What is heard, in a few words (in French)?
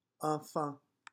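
"enfant", French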